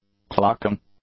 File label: "clocking", English; nouns